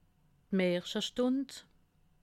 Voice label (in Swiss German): "marchestund", alsace